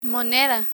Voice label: "moneda", Spanish

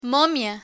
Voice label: "momia" Spanish; learn spanish

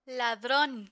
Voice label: ladron, learn spanish vocabulary

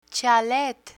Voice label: "chalet", Spanish; real estate terminology; learn spanish

